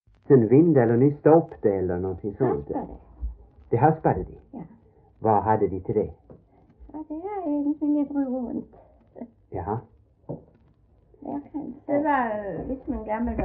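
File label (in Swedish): Äspö Skåne, Svenska sagor dialekter; Folkminnen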